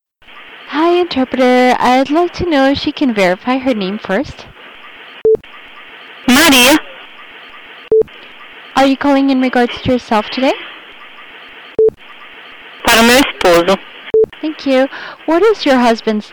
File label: Insurance Medical Coverage Question Brazilian Portuguese without interpretation